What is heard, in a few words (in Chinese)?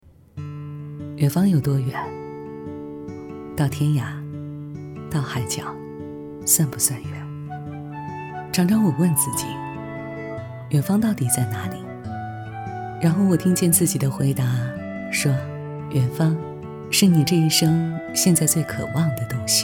走心 独白